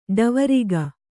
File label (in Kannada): "ಡಾವರಿಗ", Kannada